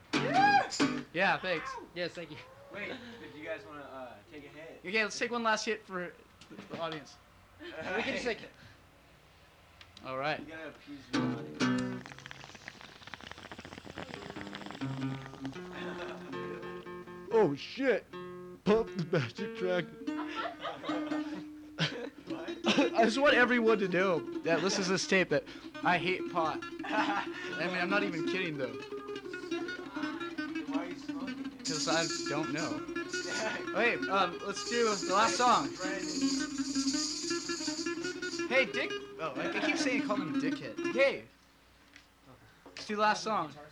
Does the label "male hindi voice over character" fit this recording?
No